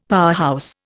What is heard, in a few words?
"powerhouse", Indian; Dictionary